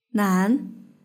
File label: "nan", Chinese; hsk5